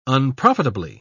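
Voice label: "unprofitably", British English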